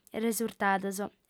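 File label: "resurtados", Sardinian female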